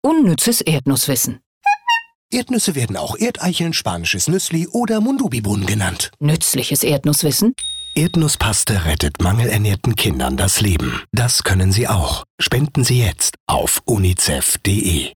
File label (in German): radiospot